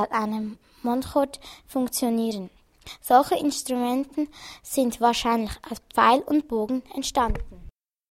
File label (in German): reportage gitarre; Schule Udligenswil